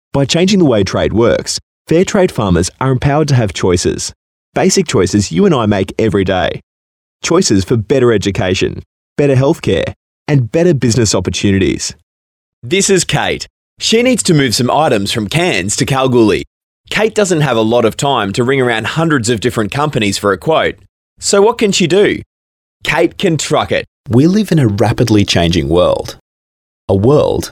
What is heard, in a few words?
Male Talent corporate